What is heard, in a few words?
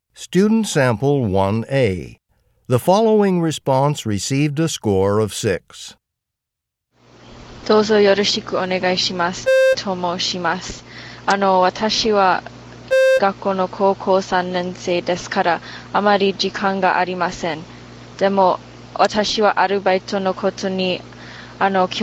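japanese conversation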